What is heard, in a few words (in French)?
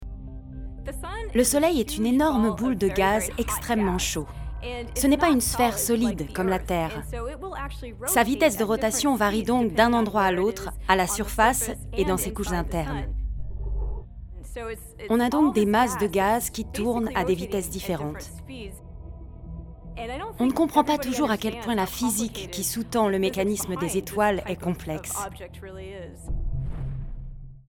voice over docu